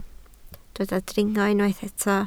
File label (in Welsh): "dringo", Welsh